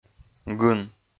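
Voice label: "гын", Komi, male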